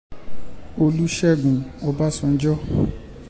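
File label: "Olusegun Obasanjo", Yoruba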